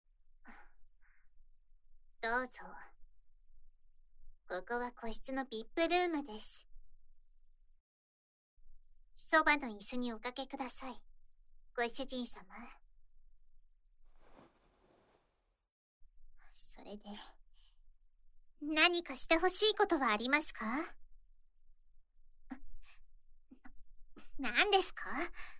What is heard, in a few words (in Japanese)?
たっぷり耳舐めご奉仕乳首責め手コキ, ASMR